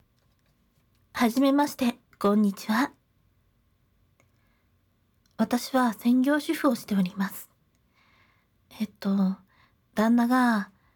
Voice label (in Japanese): 自己紹介, ASMR